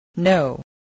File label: "know", English